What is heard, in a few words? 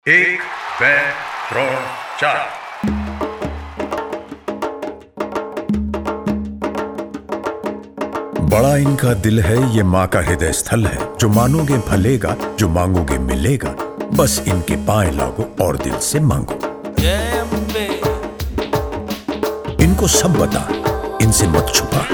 ambaji hindi; gujrat tourism